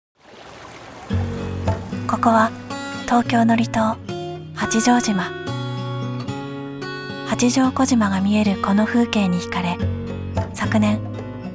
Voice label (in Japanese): ボイスサンプル ナチュラル・カフェ紹介